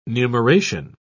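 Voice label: "numeration", British English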